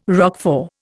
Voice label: "Roquefort", Indian